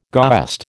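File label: "goest", American English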